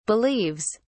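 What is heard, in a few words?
"believes", British English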